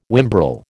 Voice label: "whimbrel", American